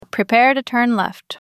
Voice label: Female Prepare Turn Left